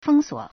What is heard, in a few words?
"feng1 suo3", Chinese